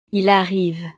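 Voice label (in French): "ils arrivent", French; Ellos llegan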